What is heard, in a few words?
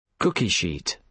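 "cookie sheet", British English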